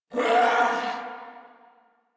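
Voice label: Throw Grenade Corpus Venus Male, en; Warframe; Battle Barks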